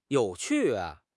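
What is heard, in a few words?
"you3 qu4", Chinese; HSK4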